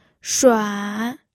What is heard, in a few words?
"shuan3", pinyin